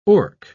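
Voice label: "orc", English, British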